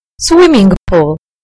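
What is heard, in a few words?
"swimming pool", British English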